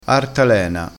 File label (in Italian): "artalena", crespinese